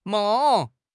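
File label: "meng4", Chinese; HSK4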